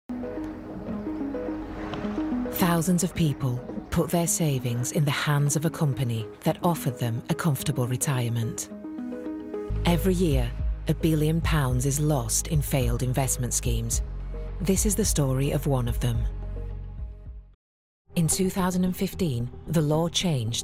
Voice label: female English northern accent documentary narration; english and greek; voices; bbc panorama